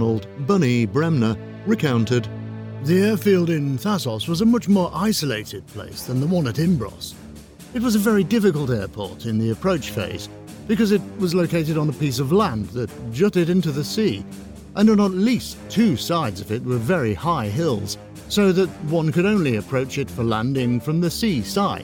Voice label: voiceover narrative documentary Interview